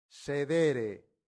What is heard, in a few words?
"sedere", Latin; santillana